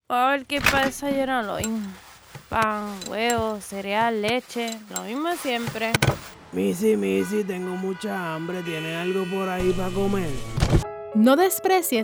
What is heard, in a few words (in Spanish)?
anuncio; Fundación Bendecidos Para Bendecir